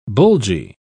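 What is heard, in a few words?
"bulgy", British English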